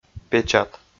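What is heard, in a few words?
"печат", Komi, male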